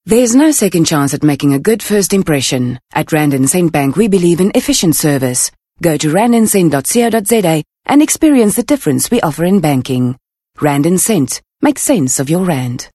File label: English Corporate